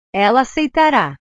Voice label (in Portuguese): "ela aceitara", portugues; verbos